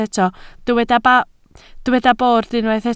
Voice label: "bord", Welsh